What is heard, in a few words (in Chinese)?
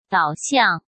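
"导向", Mandarin Chinese; chinese flashcards; hsk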